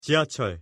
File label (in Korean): "지하철" Korean; pronunciation